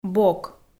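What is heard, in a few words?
"бок", Komi-Zyrian, female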